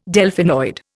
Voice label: "Delphinoid", Indian